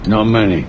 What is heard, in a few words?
Voice Clip Only